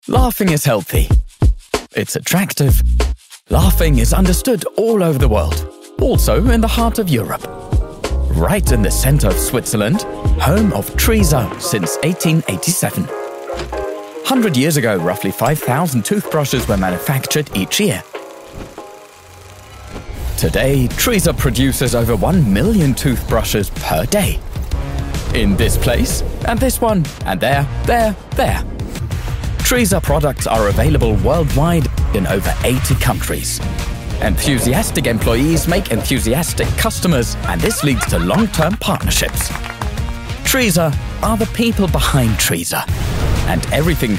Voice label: ENGLISCH, NATIVE UK - locker, relatable, mit Augenzwickern; Toothbrushes; English UK, English NEUTRAL; TRISA Toothbrushes